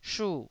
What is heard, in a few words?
"shu4", taiwanese Syllables